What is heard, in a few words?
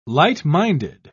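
"light-minded", English, British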